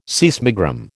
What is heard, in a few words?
"seismogram", American